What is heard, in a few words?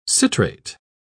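"citrate", British English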